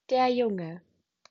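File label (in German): "Junge", German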